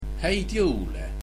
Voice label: Merry Christmas, estonian